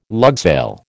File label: "lugsail", American